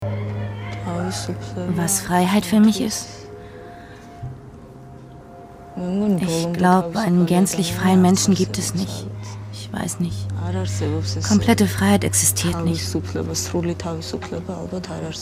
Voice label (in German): doku; in der schwebe